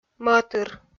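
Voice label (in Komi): "мӧтыр", Komi, female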